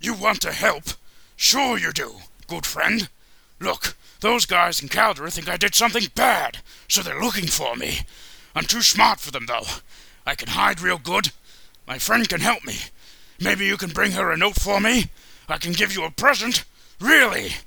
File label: orc audition